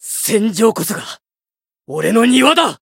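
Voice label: Voice variable chant, Japanese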